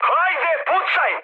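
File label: Serbian attack position mood high; speech; War Thunder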